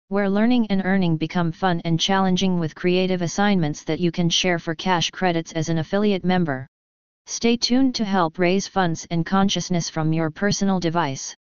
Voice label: INTRO voice